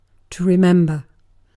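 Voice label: "remember", British English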